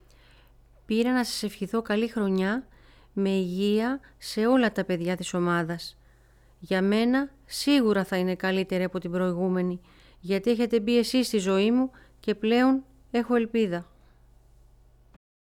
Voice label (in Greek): Ηλικιωμένος 3ης Ηλικίας